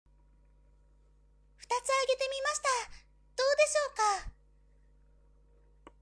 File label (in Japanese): onna